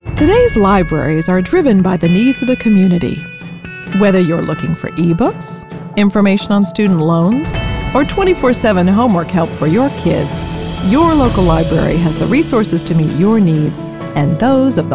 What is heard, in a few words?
Narration Libraries